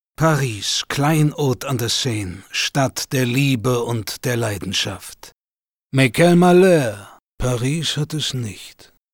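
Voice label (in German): Kommentar Neutral; Fremdtake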